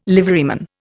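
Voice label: "liveryman", Indian English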